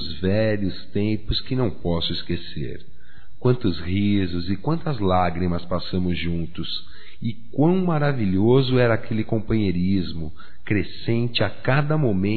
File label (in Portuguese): COMO VAI (M); Amizades; Telemensagens 2017